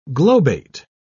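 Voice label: "globate", British English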